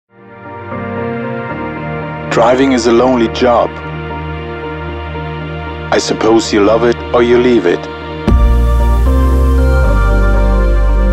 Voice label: Imagefilm, Driver Life Keeping in touch; Volvo Trucks